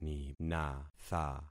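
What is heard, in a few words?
"ninatha", concatenated syllables; Affixing experiment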